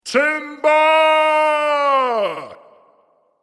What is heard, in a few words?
Timber Spoken, The word ‘Timber’ shouted in male voice.; Yelling; Sound Effects:Voice